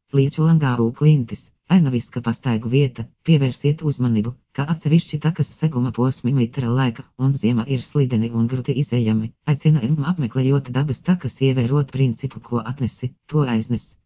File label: synthesized audio